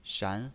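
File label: "shan", Mandarin Chinese pinyin, second tone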